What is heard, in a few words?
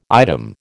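"item", American English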